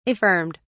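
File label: "affirmed", English, uk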